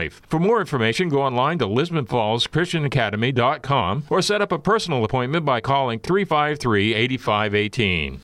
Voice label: Christian academy radio announcement; lisbon falls

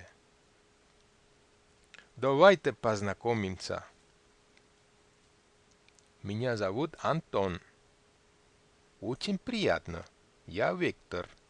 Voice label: russian dialog

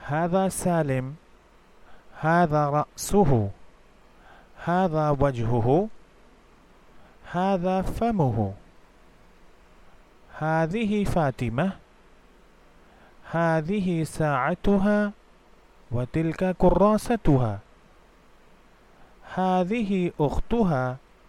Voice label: arabic basic listening practice

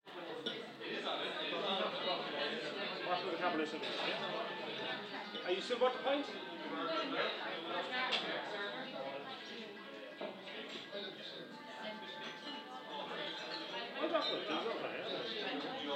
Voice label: pub talk in Cork

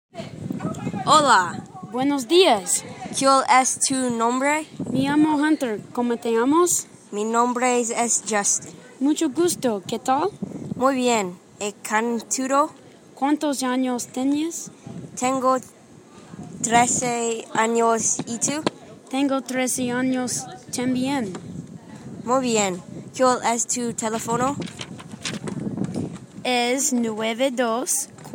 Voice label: spanish skit

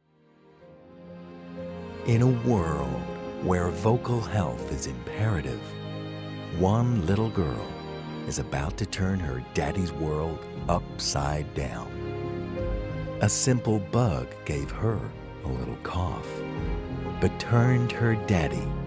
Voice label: spoof movie trailer

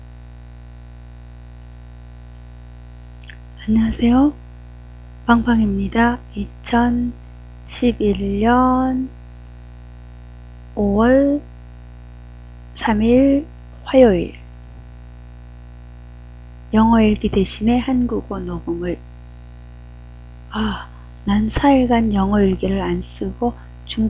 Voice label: Korean audio

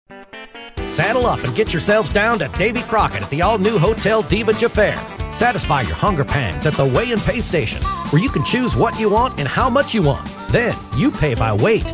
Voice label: country bar commercial

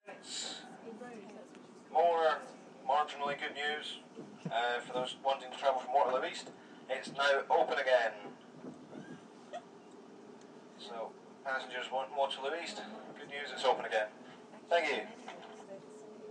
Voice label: informative train announc; tube strike brings out the best in other transport modes

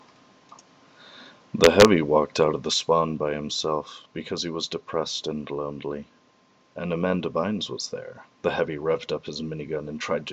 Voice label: late night narration

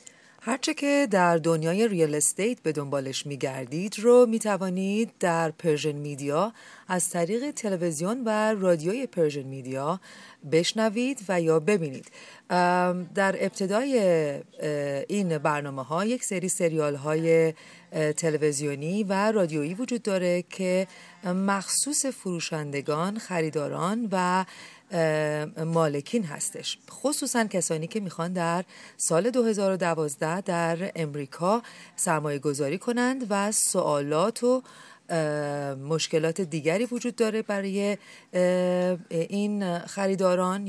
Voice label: celebrity home show intro in farsi

